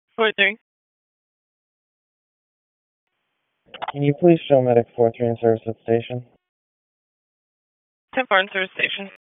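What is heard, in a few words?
Wake County EMS Dispatch, p25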